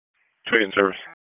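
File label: EMS Dispatch; wake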